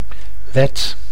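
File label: "wet", Dutch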